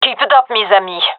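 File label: round winning, female; vo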